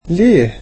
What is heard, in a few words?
"why", egyptian